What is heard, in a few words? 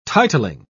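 "titling", British English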